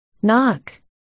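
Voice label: "knock", spoken word, articulation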